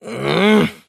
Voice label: anger; davion; dota; dragon knight